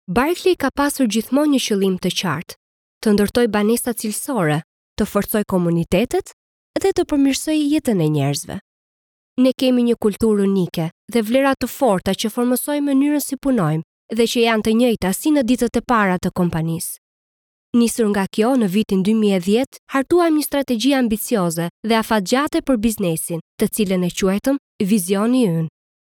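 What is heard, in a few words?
Albanian female Corporate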